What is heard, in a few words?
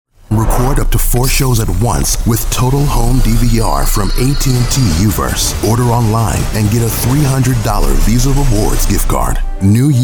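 Commercial, voice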